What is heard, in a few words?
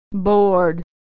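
"bored", American English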